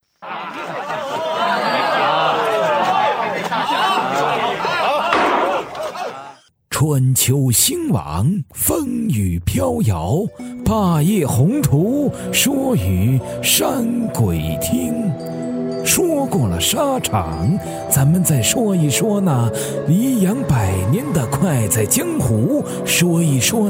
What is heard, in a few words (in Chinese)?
古代人物内含老人将军青年中年公子样音